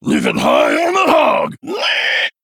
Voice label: Male Quilboar Play

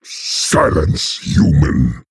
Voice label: Male Orc; Grommash; Jaina

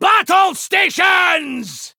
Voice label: Male Dwarf; Vanndar; Boss Uses Hero Power

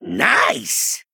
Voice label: Male Goblin WOW

